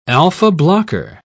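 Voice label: "alpha-blocker", British English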